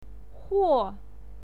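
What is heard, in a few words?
"huo", Mandarin Chinese, fourth tone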